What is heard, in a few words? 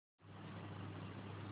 Voice message